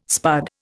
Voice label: "spud", Indian

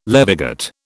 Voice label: "levigate", British

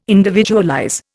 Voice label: "individualize", Indian

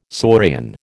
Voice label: "saurian", American

